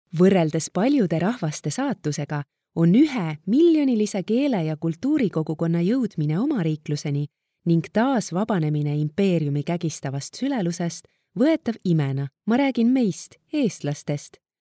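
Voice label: Estonian, female; estonian voice over talent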